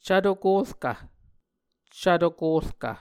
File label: "cvto korkv", muscogee